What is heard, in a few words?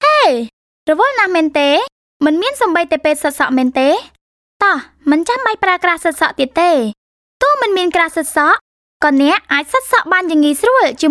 Khmer Female Natural; Fander